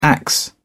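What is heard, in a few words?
"axe", British English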